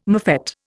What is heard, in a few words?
"mofette", Indian